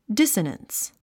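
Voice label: "dissonance", English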